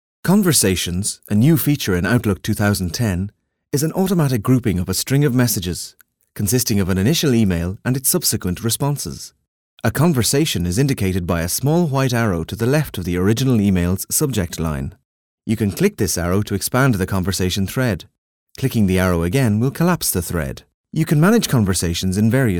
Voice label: e-learning